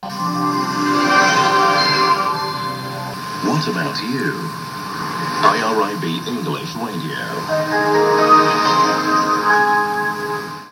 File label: IRIB english